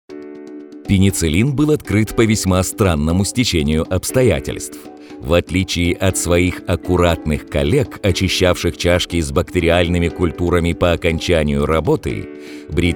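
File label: russian male commercial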